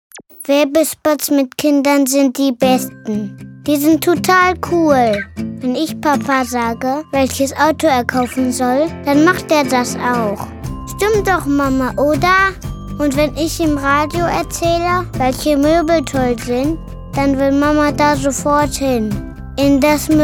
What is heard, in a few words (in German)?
Werbung